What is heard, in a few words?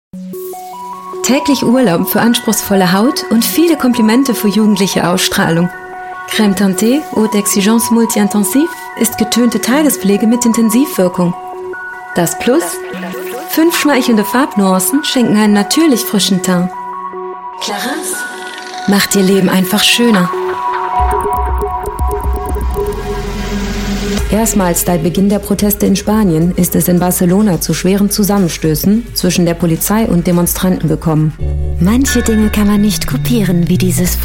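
German commercial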